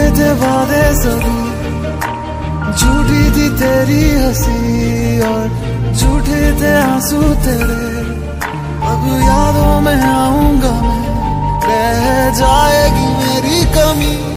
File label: bollywood punjabi movies dialogues ringtone